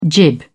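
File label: "jib", British English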